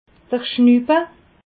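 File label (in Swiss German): "schnuppe", Alsatian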